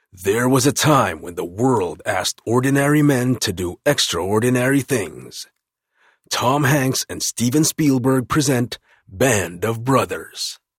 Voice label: English, Authoritative, Husky